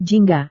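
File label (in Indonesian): "jingga", Indonesian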